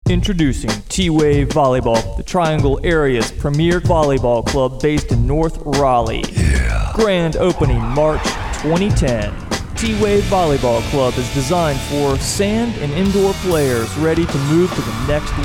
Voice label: Radio Spot